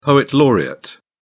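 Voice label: "poet laureate", British English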